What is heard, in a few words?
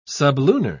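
"sublunar", British English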